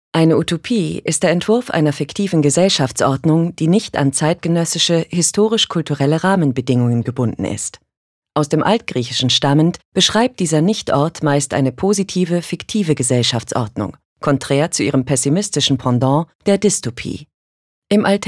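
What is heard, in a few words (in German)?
commentary, Neutral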